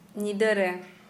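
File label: Santali female at night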